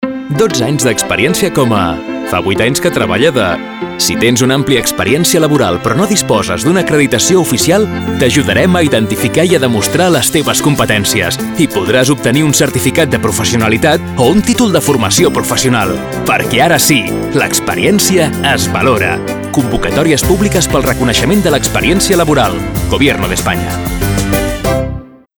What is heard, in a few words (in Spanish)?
CATALAN RAD; cuna radio; SEPE